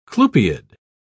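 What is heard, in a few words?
"clupeid", English, British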